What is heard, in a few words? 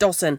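"dossan", Cromarty Fishertoun dialect; [dOHsn]; fringe, forelock; Word; fishertoun dictionary; cromartymuseum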